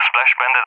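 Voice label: "splash bandit", English speech; Allied Flight; DCS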